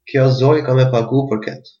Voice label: pay, albanian gheg